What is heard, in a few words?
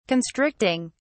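"constricting", English, British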